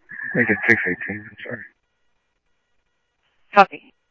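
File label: Mesa police department, scanner audio trunk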